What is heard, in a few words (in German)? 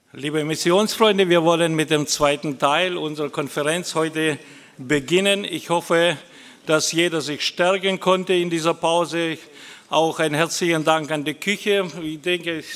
Ansage